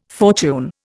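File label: "fortune", Indian English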